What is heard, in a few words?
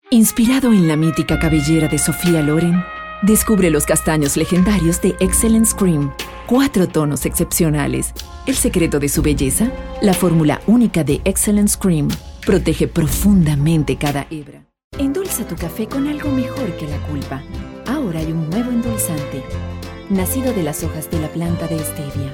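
Spanish Latin America female sales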